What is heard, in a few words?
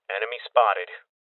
Voices Enemy Spotted Male Young